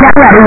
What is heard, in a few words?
get ready 8 bit pcm; space harrier